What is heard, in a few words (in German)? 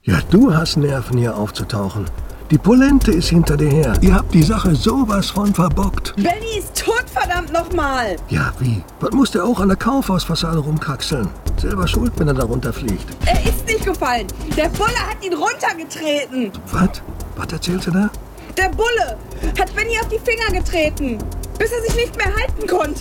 Dialog Krimi; GOLDSTEIN